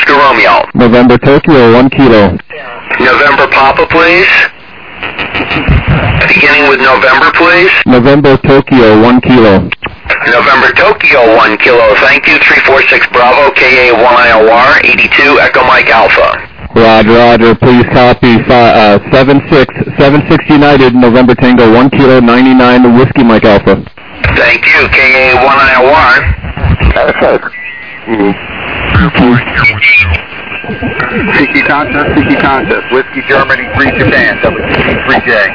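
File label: lower sideband 7MHz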